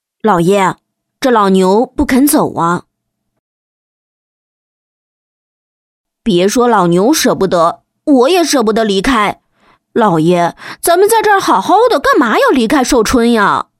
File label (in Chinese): 童声 男童 16岁; 时令男童; 国语女声配音